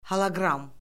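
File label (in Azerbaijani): "holoqram", Azerbaijani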